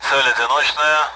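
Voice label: Speech, Russian, AWACS; single target; DCS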